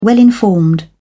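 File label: "well-informed", British English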